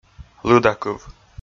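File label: "лыдакыв", Komi, male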